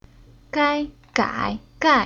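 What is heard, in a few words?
"Gai", Chinese syllable; Уроки фонетического блока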